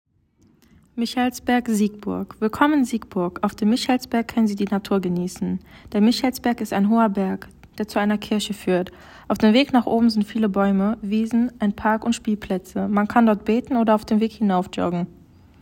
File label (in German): Deutsch; Michelsberg